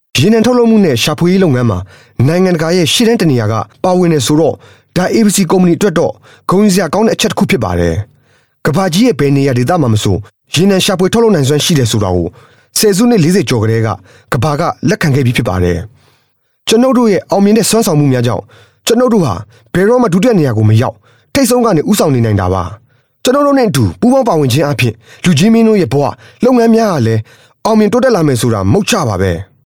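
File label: Burmese m Marketing, male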